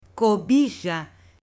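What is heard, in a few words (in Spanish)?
"COBIJA", Spanish, female; AppLenguaje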